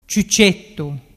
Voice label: "ciucettu", dialettu

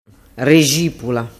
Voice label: "rexipula", dialettu

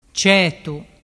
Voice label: "cetumùttu", Vernazzese; Vocabolario Vernazzese - Italiano

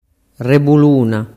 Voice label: "rebuluna", dialettu

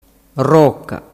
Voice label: "roca", dialettu

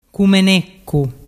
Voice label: "cumeneccu", dialettu

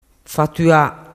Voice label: "fattua", dialettu

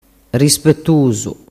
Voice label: "rispetusu", dialettu

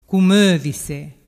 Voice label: "cumovise", dialettu

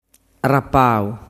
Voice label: "rapau", dialettu

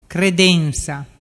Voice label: "credensa", dialettu

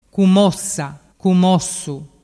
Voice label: "cumossaossu", dialettu

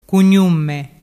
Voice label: "cugnumme", dialettu

